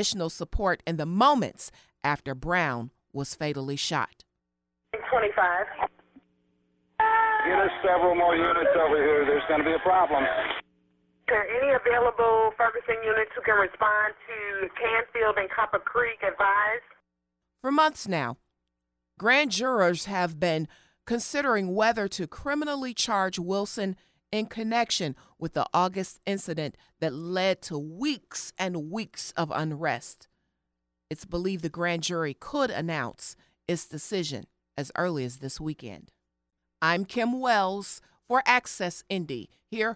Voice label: police dispatch; ferguson; m brown killing; tapes released